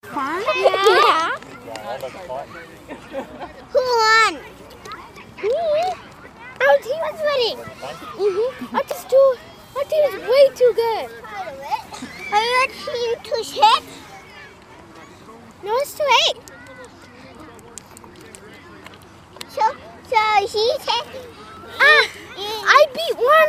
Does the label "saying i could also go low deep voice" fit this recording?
No